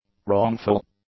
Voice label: "wrongful", english; adjectives

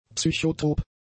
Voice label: "psychotrop", German; adjectives

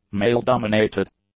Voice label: "male-dominated", English; adjectives

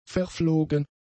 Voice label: "verflogen", German; adjectives

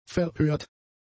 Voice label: "verhört", German; adjectives

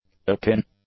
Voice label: "akin", English; adjectives

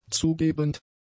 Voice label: "zugebend", German; adjectives

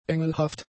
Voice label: "engelhaft", German; adjectives

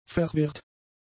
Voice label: "verwirrt", German; adjectives

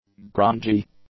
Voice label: "grungy", english; adjectives

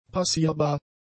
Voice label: "passierbar", German; adjectives

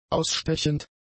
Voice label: "ausstechend", German; adjectives

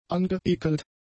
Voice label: "angeekelt", German; adjectives

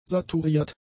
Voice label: "saturiert", german; adjectives

